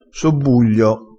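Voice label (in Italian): "sobbuglio", vocabolario dialettale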